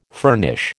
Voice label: "furnish", American